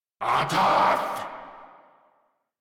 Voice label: Attack, Grineer Male

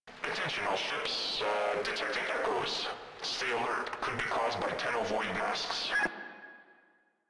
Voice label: Controller, Radio Interceptions

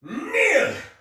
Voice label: Attack, Soldier Male; Sinecure

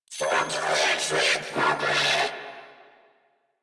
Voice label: Cover Me, Female; en; Warframe; New War; Narmer Grineer

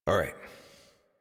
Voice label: Horse Start Adult; Warframe; Duviri

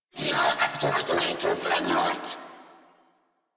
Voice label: Patrol, Female; New War; Narmer Grineer

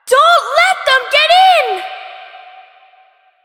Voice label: Child; Villagers; en; Ostron; Warframe; Cetus Invasion

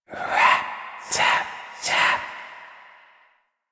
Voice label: Intelligible Whisper Rap Tap Tap B; Rell; Warframe; Harrow

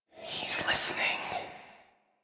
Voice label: Intelligible Whisper D; Rell; Warframe; Harrow

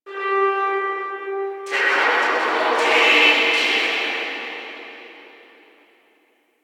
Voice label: Guild Announcer; Ambience; Warframe

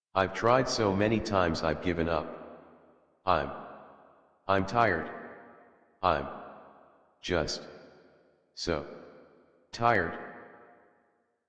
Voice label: Adult, en, Dialog; Rabbit; Warframe; Duviri Paradox Quest

